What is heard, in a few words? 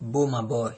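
"bomaboy", Nigerian Pidgin, male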